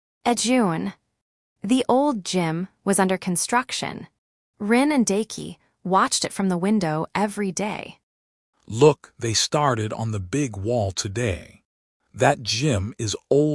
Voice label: drama